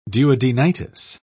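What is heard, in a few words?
"duodenitis", British English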